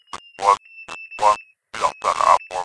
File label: Army voices